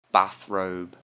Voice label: "bathrobe", English; Underwear and Sleepwear

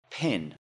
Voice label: "pin", English; Jewelry and Cosmetics

